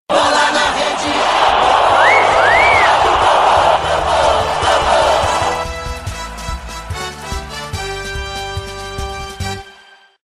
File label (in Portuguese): vinheta bola na rede e gol, radio; globo